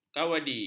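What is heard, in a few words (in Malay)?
"kavadi", Malay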